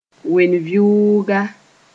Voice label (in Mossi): "wen-vɩʋʋgã", moore